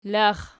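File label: "lax", nominative, Archi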